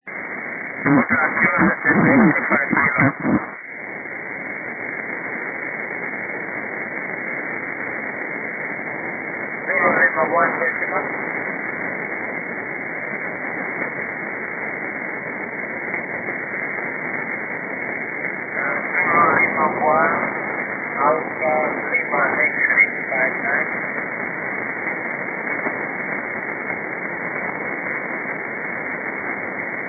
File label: 40 ssb